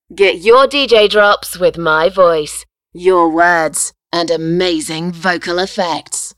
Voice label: Phaser, DJ Imaging; Voice-over demos; drops; MediaMusicNow